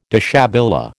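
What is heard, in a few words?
"déshabillé", American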